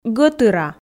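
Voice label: "гӧтыра", Komi, female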